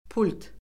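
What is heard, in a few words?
"pult", Azerbaijani; Orfoqrafiya Lüğəti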